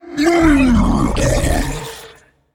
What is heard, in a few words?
Male Attack; C'Thrax